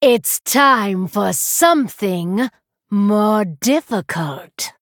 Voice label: Female Night Elf